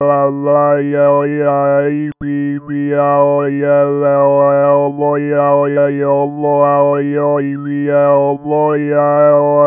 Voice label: imitator babble Aiub Aiu Space